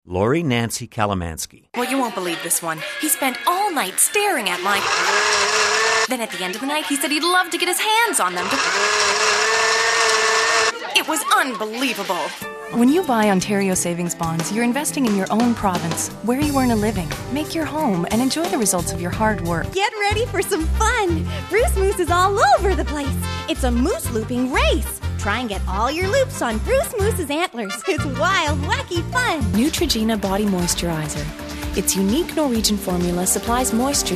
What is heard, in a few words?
commercial female